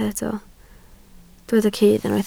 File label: "cudd", Welsh